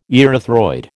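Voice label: "erythroid", American English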